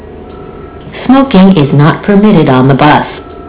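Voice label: smoke, Long Beach Transit Passengers Relations announcements; Smoking is not permitted on the bus; Thank you for riding Long Beach Transit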